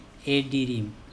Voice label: eDirim light black, Andamanese

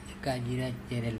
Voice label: "ekajiracErel", Andamanese; green chilli

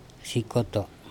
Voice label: "sikoto", andamanese

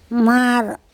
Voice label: "mar", Andamanese; fish

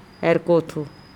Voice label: erkOtho to mix, andamanese

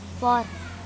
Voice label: "por", Andamanese

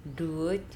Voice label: "Duoc", Andamanese; hear

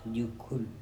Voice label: "jukHul", Andamanese; moray eel

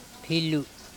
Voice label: "philu", Andamanese

